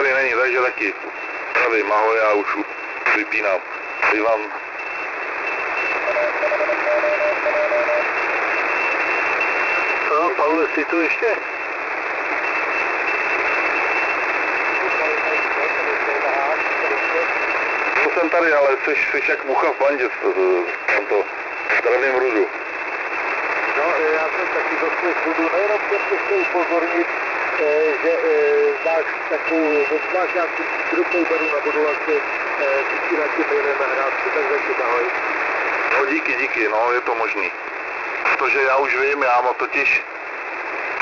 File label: 3766.5 kHz recording lsb; WebSDR records; OK1SDR